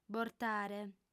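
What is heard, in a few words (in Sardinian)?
"bortare", female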